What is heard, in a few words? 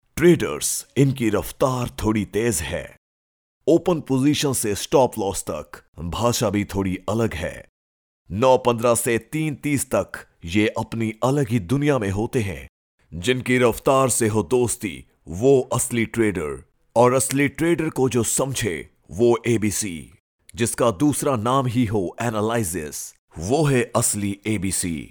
hindi, Male Voice; BLINK